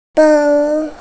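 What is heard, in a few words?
"feng1", Mandarin Chinese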